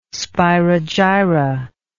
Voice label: "spirogyra", English